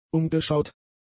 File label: "umgeschaut", German; adjectives